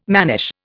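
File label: "mannish", Indian English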